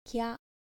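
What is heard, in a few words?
"kya", Japanese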